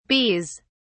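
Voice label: "bees", English, British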